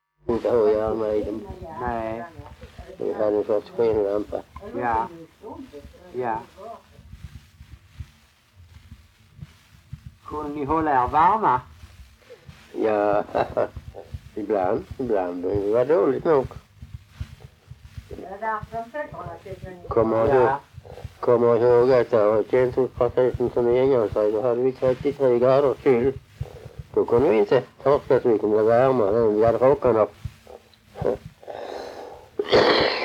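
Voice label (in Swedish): Allerum Skåne, dialekter svenska sagor; Folkminnen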